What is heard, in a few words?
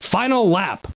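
"final lap", spoken; tdordemo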